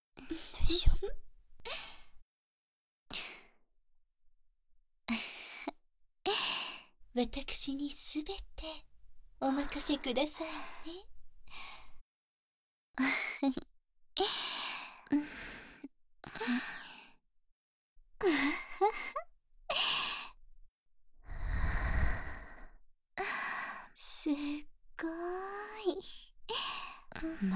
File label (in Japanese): パイズリ乳首舐め、耳舐め×2、カウントダウン射精×2（バイノーラルマイク 効果音大きめ）